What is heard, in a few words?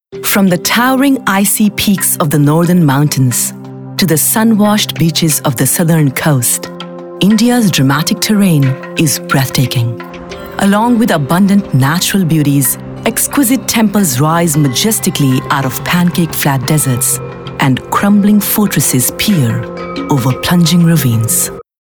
Travel, narration